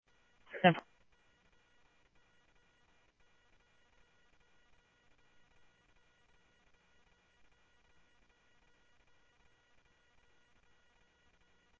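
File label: police department, scanner audio trunk; SCTSDLE PD - PD1 ScottsdalePolice; H-Scottsdale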